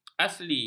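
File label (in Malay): "asli", Malay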